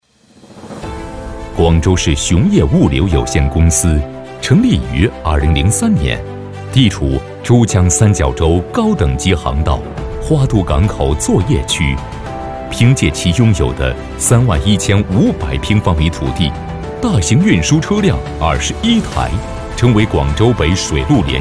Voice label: 男 宣传; 广州雄业

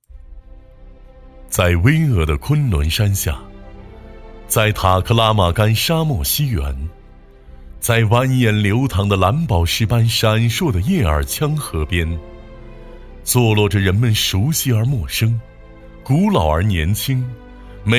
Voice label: 男 纪录片; 图木舒克

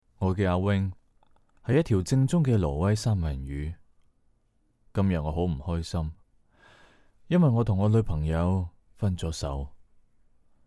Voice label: 粤男 旁白 自然风; 挪威三文鱼

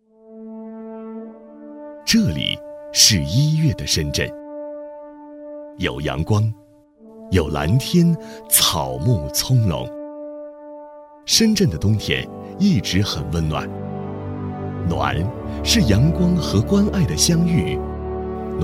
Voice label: 男, 旁白 温暖

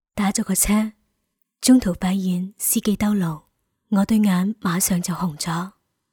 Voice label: 粤 女 旁白; 打了个车

